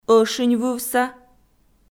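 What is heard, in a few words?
"ӧшиньвывса", Komi, female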